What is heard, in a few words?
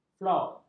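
"flot", Malay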